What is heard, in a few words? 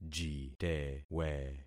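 "jitewe", concatenated syllables; Affixing experiment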